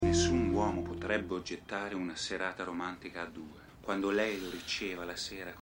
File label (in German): Italienischsprecher; Stimmproben